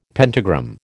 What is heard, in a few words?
"pentagram", American